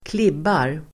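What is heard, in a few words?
"klibbar", Swedish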